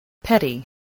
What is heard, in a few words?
"petty", voice, English